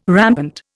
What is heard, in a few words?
"rampant", Indian